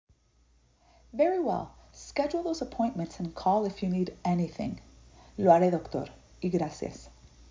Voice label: Medical Certified Oral Spanish Practice